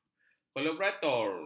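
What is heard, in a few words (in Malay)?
"kolaborator", Malay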